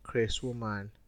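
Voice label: "crase woman", Nigerian Pidgin, male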